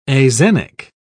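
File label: "axenic", British English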